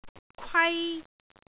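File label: "kwai1", Cantonese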